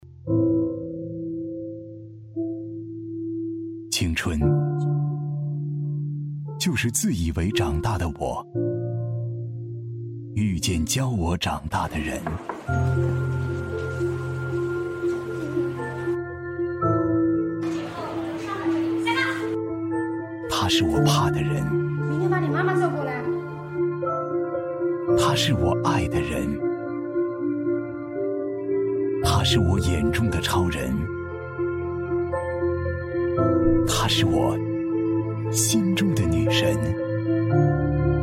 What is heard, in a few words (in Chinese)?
男 走心旁白 致敬教师节